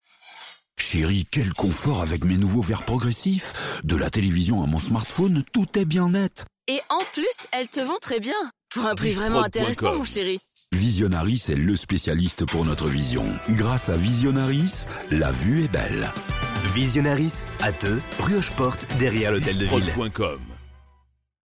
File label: Radio Spot; Radio 4910; Visionaris